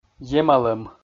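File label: "емалӧм", Komi-Zyrian, male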